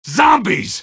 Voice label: "zombies", male; npc; Garry's Mod; hl2

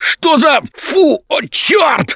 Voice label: grabbed by smoker, russian, Survivor Voice; Left 4 Dead 2; Gambler

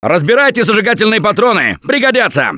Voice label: incend ammo, russian, Survivor Voice; Gambler

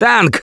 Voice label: Warn Tank, russian; Gambler

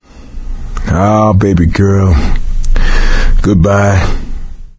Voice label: survivor mourn, voice; Left 4 Dead 2; coach

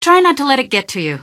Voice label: answer, female; Garry's Mod; hl2

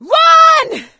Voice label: yell run; Left 4 Dead 2; producer

